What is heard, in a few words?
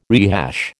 "rehash", American